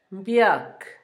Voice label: cuervo, Zapoteco, Asunción Ocotlan; Animales; INALI; Haspelmath

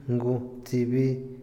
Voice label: "infierno", Otomí, hombre; Religión; INALI

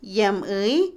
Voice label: costumbre, Otomí, mujer; INALI

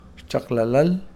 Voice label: envidia, Kakchikel

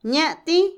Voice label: prometer, Otomí, mujer; Habla; INALI

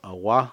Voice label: ratón, Cucapá

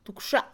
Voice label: un costal, Mixe, Mujer; Cantidad; INALI

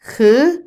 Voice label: atrapar, Otomí, mujer; INALI

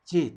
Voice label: huevo, Zapoteco, Magdalena Ocotlan; Alimento; INALI; Haspelmath

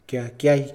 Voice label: "ayunar", Mixe, hombre; Religión

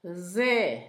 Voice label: manteca, Zapoteco, Asunción Ocotlan; Alimento; INALI; Haspelmath

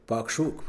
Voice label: "fragante", Mixe, hombre; Percepción

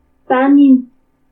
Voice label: "Tanimu", tres, Purépecha; Números

